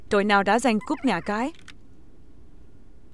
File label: vietnamese